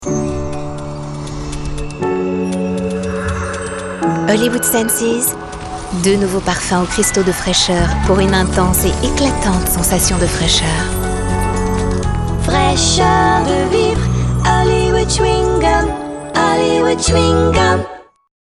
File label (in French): F FR PUB TV; HOLLIWOOD senses